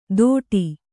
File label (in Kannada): "ತೋಡಿ", Kannada